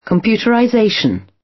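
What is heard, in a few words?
"computerization" British; Technology; Công nghệ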